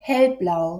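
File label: "hellblau", German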